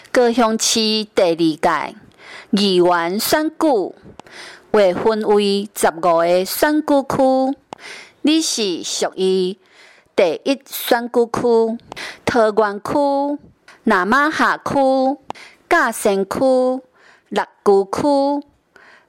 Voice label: 台語, 前言, 有聲選舉公報; 第一選區

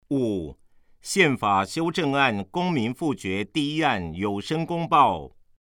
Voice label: 國語; 臺北市; 有聲公報; 結語 (含反賄選宣導)

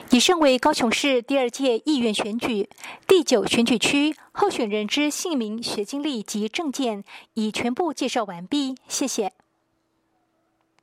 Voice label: 國語, 有聲選舉公報; 高雄市; 高雄市市長議員有聲選舉公報; 第九選區

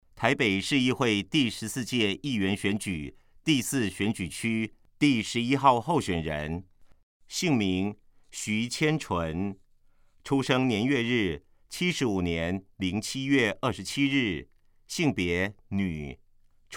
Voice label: 國語; 臺北市; 有聲公報; 市議員; 臺北市議會第14屆議員第4選舉區 (中山、大同區)候選人介紹

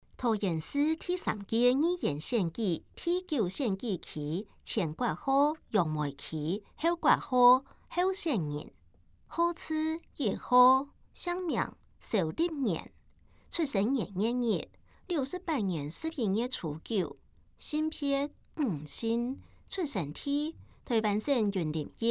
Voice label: 客語; 有聲公報; 市議員

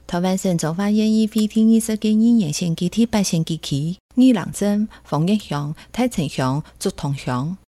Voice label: 前言 客語; 彰化縣; 縣議員; 第八選舉區; 有聲公報

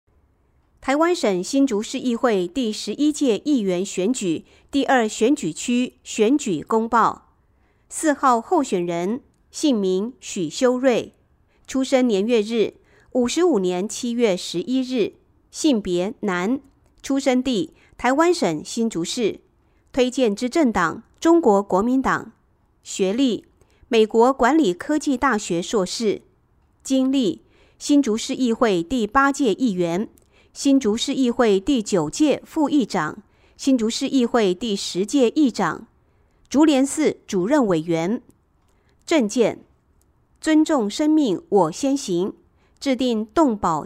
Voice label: 國語; 新竹市; 有聲公報; 市議員; 第二選舉區

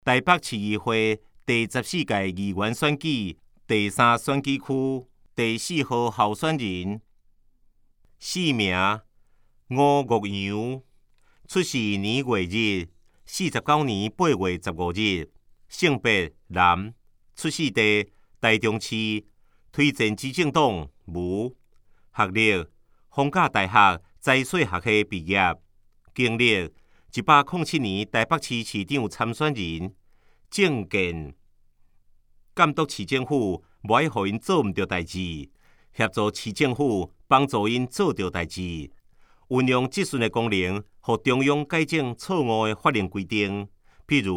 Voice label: 台語; 臺北市; 有聲公報; 市議員; 臺北市議會第14屆議員第3選舉區 (松山、信義區)候選人介紹

